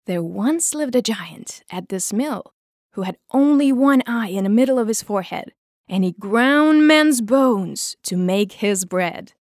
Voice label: eng